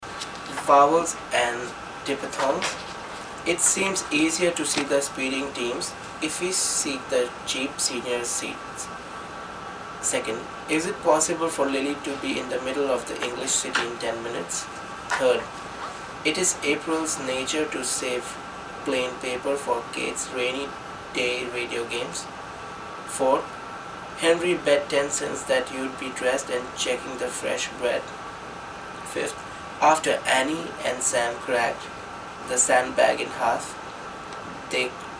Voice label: India New Delhi Study Sentences, dialect samples; asia